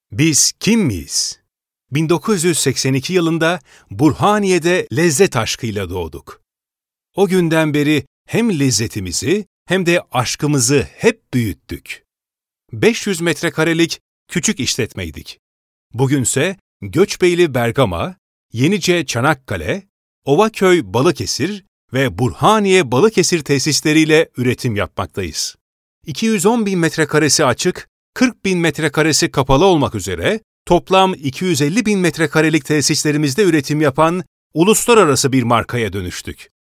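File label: Turkish, male, corporate; voice-over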